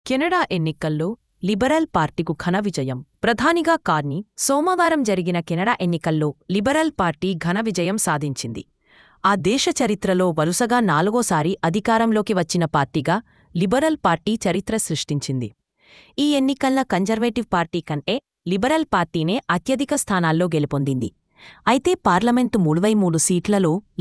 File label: nova sympathetic; openai-fm